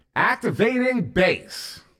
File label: Activating Base, CTF Announcer